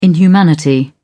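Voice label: "inhumanity", British English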